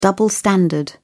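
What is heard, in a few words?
"double-standard", British English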